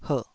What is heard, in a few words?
"herh3", taiwanese